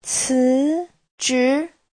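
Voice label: "cízhí", Mandarin Chinese; từ chức, thôi việc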